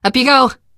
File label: revive friend, survivor voice teen girl; Left 4 Dead; Source Engine; video games